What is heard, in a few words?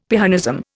"Pyrrhonism", Indian; Dictionary